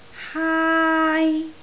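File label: "haai1", Cantonese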